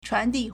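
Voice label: "chuándì", Chinese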